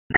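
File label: Police Southwest District Dispatch; Raleigh; wake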